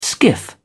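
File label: "skiff", British English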